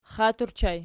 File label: xat ergpl, archi